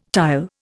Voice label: "tile", Indian